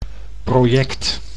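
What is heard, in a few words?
"project", Dutch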